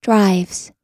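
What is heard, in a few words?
"drives", American English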